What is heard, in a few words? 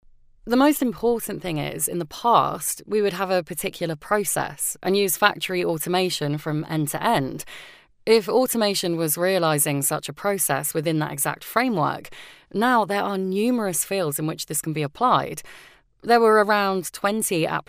locutora inglesa english british voiceover; Escena Digital